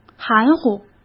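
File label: "含糊", Mandarin Chinese; chinese-flashcards; hsk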